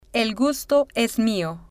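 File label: "el gusto es mio", Spanish, female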